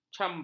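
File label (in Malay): "cambah", Malay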